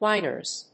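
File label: "whiners", English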